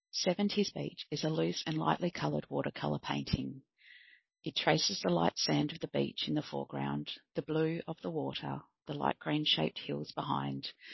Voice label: Audio Description; 70s Beach by Victoria Wardlaw; Living My Best Life art exhibition